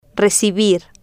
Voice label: "recibir", Spanish